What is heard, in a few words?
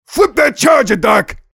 mobster activate charge